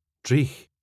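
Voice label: "dreich", Scottish English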